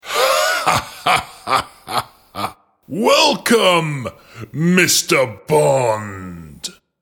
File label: Villian, Hammy James Bond Villain welcoming him with a hearty sinister; Hammy James Bond Villain welcoming him with a hearty sinister laugh.; Greetings and Goodbye